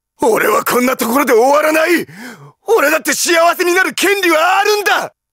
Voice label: happy; Donatello